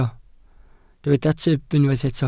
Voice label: "tyb", Welsh

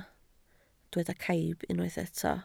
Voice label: "caib", Welsh

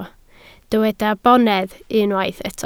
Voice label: "bonedd", Welsh

